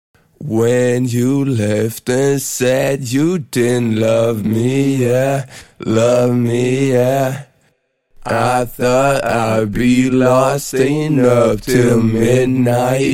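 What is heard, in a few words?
Hip Hop rap sing 125 Bm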